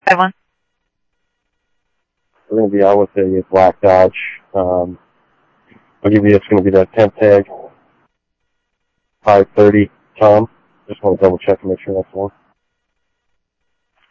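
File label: Sheriff East County, scanner audio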